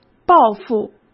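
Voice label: "抱负", Mandarin Chinese; hsk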